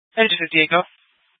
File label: Phx PD Fire Dispatch; RWC